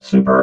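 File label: "super", robot voice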